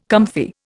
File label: "comfy", Indian